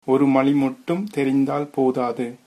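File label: one language, Tamil, male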